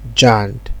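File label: "jand", Nigerian Pidgin, male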